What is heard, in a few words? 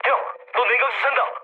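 Korean damaged water mood low; War Thunder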